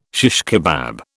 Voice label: "shish kebab", British